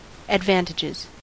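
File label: "advantages", US English; pronunciations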